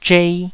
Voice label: "zhei2", taiwanese

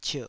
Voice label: "cierh3", Taiwanese

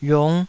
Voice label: "yung5", taiwanese Syllables

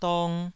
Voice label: "dong2", Taiwanese

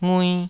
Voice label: "ngui2", taiwanese syllables

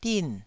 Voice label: "din4", taiwanese syllable

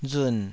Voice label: "run3", Taiwanese

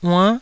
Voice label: "uann9", Taiwanese

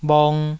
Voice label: "bhong2", taiwanese Syllables

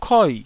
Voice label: "koi4", taiwanese syllables

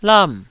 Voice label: "lam4", Taiwanese syllable

